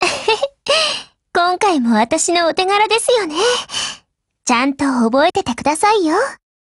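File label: WIN, Japanese; GF Alarm; AR70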